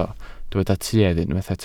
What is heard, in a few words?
"tuedd", Welsh